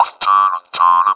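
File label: effects talk, Funky Vocals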